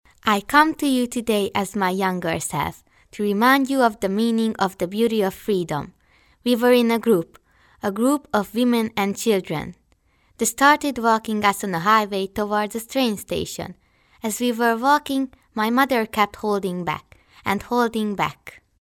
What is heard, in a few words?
hungarian child voiceover english locutora infantil hungria